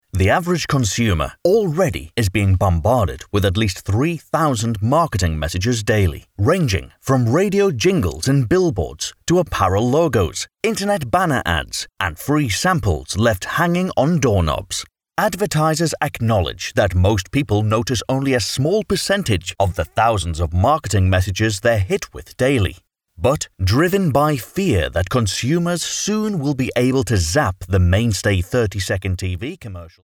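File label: English UK Male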